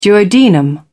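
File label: "duodenum", British English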